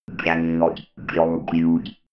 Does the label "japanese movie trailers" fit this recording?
No